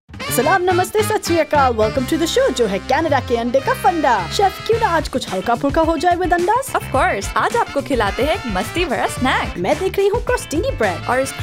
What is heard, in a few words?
Hindi Spot, Other Language Hindi; EggFarmer; Eggs SA